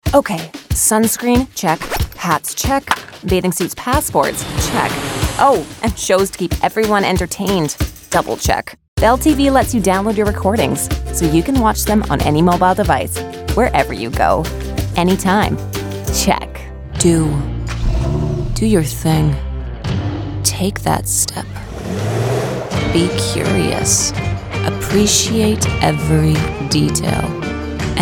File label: english us and canada female commercial